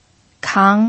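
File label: "kang" second tone, pinyin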